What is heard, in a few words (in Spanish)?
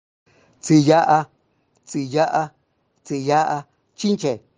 chinche mixteco; vocabulario palabras en mixteco